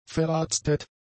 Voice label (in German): "verarztet", German; adjectives